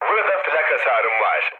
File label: damaged aa, mood low, speech tur; War Thunder